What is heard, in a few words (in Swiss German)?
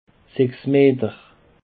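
"sechsmeter", Alsatian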